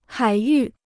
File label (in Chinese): "海域", Chinese